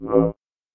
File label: VOICEFX ELCTR 140; Techno2